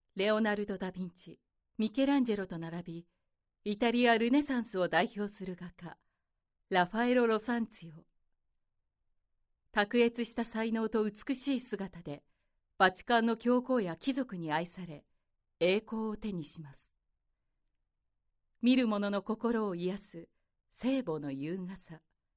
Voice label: narration